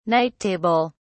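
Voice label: "night table", English, British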